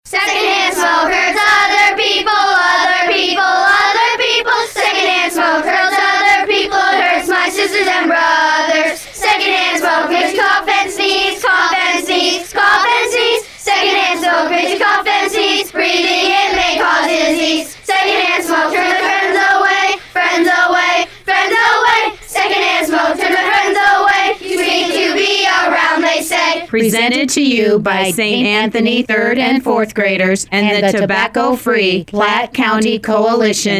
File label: Radio Commercial; Kick Butts